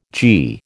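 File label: "gie", American English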